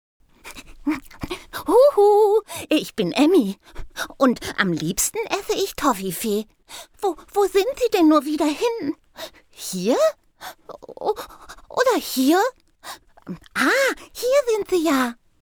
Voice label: Hoerspiel Eichhoernchen; Emmi

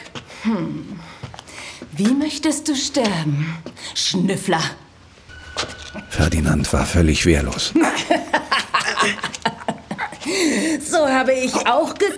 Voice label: Hörspiel Ausschnitt; Untote; Ferdinand Balzac; Der Pakt

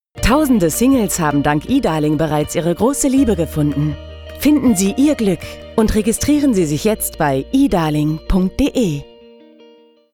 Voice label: TVSpot DE; eDarling

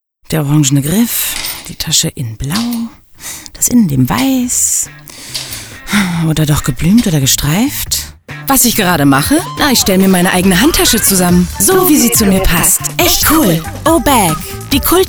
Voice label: Radio Spot; O-Bag

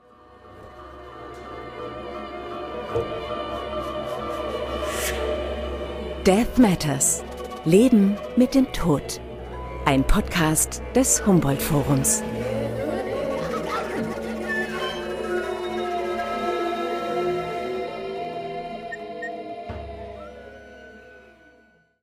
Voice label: Podcast DE; Death Matters

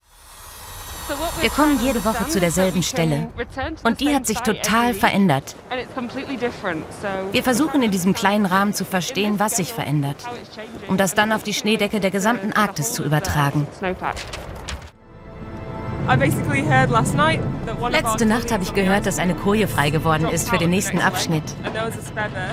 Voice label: VO Jung; Expedition Arktis

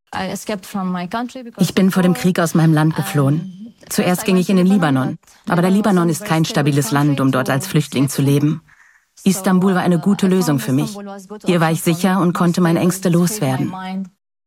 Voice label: VO Doku; Vibes Istanbul

